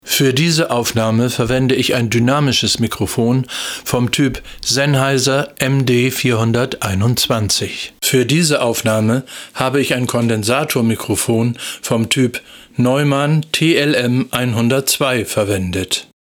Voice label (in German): Sennheiser orig Neum zu Senn